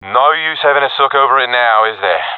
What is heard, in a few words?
round lost, professional epic; vo; Counter Strike Model Pack; CS:GO